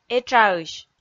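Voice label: "этшаысь", Komi, female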